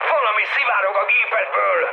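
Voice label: Hungarian tank damaged mood high, speech; War Thunder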